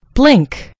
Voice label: "blink", en GB; gcompris

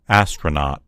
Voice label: "astronaut", en US; gcompris

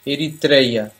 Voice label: "eritrea", pt; gcompris; geography

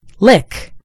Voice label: "lick", en GB; gcompris

